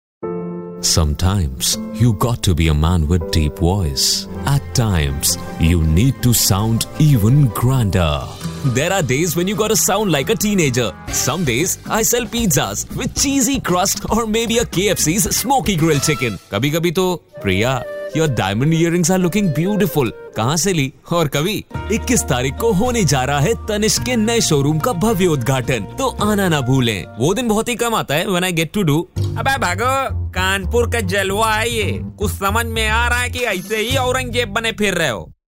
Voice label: Eng & Hin